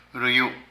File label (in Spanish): Puerta, Zapoteco de Petapa; Casa; Vocabulary